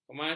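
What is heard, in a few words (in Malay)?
"kematu", Malay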